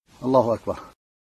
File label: allahu akbar whisper quiet low voice